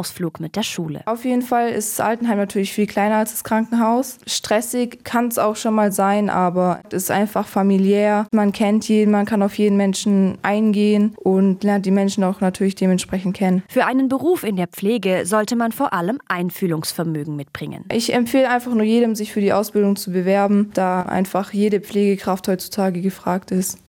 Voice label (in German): Stiftung Liebenau Infomercial; st-barbara-baienfurt; pflege